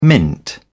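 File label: "mint", British English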